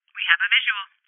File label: Voices Enemy Spotted Female